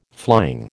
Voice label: "flying", American